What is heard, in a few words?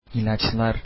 medicines, turkish